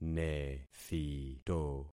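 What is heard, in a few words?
"nethito", concatenated syllables; Affixing experiment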